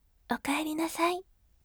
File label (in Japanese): voice okaerinasai yasashime